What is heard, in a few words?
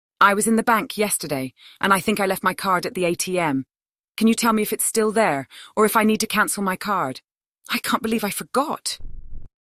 Banking Voice